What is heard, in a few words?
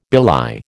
"belie", American